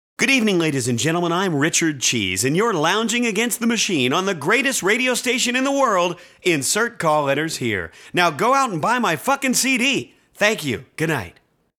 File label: Radio Announcement